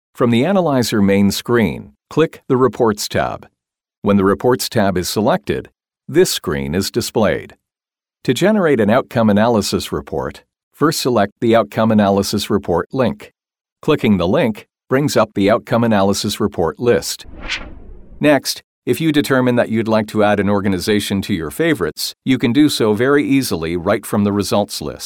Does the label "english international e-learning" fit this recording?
Yes